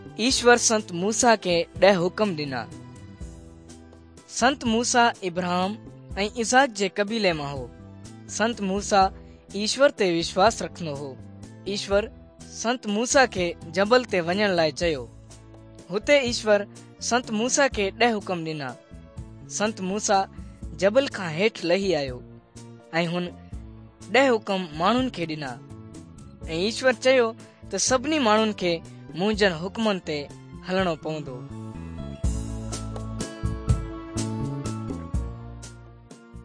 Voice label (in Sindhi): good news sindhi dukslinu, drama; bible; pakistan; sindhi pakistan nt drama